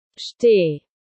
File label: stand dog command in german